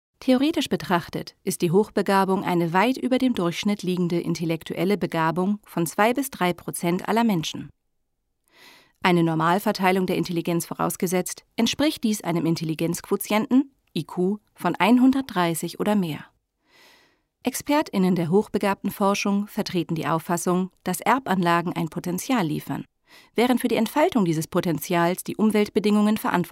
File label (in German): Ratgeber; DGHKeV